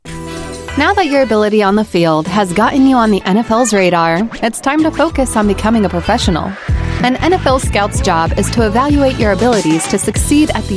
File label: US English Elearning